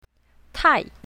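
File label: "tai4", pinyin, south, female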